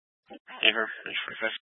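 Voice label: Police Dispatch, Scanner audio; Police 1 Dispatch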